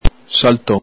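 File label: "salton", Veneto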